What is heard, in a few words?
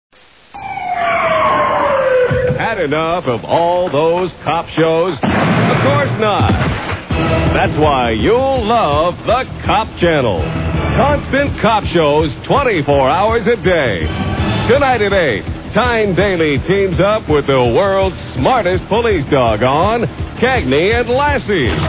Commercials; Cop Channel; Freekin Weird Audio